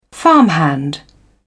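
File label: "farmhand", British English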